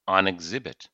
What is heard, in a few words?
"on exhibit" idiom; English